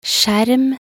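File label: "skjerm", Norwegian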